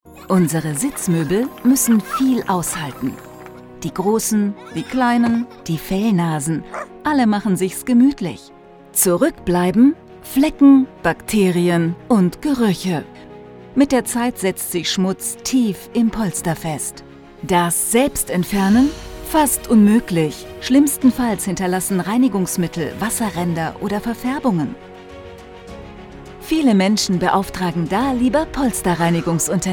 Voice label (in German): Erklaerfilm, Comment (Kommentar), Presentation, German; Polsterblitz